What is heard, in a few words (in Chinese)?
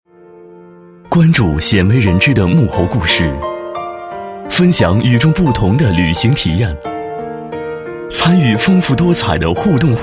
栏目包装 宣传片 温暖亲切, 国语男声配音; CCTV; 央视